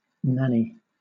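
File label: "nanny", British English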